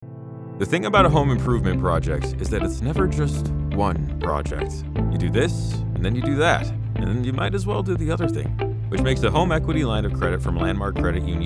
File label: explainer